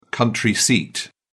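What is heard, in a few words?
"country seat", British English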